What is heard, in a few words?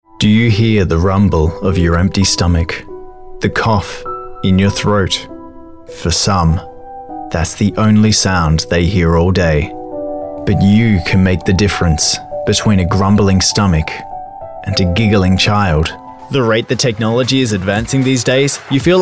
Commercial; AdvantageIVR